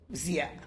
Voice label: bean, tlacolula